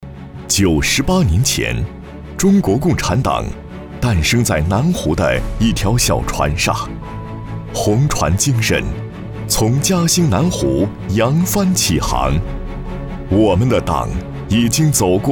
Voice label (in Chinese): 男 颁奖独白